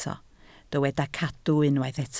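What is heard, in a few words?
"cadw" Welsh, spoken word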